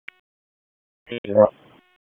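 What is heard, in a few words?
Police Northeast District Dispatch; Raleigh; wake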